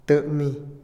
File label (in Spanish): descansar, Otomí, hombre; INALI